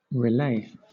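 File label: "relay", British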